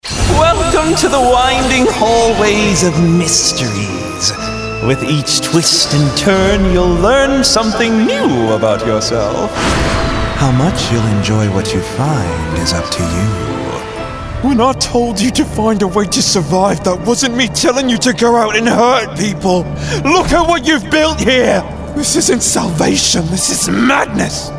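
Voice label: animation men interactive, Voice Over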